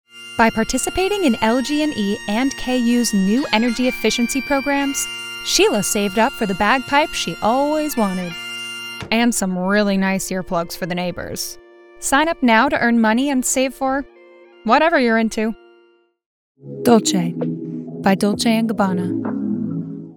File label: Commercial VO